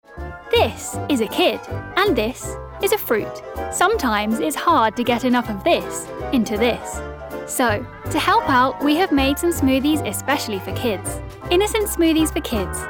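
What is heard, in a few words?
uk commercial, British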